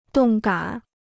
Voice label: "动感", Chinese

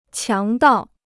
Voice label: "强盗", Chinese

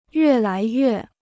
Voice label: "越来越", Chinese

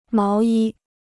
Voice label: "毛衣", Chinese

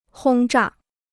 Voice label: "轰炸", Chinese

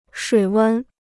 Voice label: "水温", Chinese

